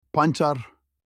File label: beetroot in turkish